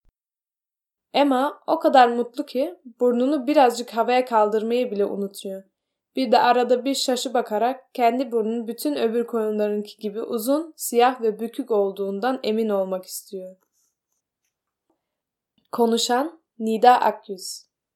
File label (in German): türkisch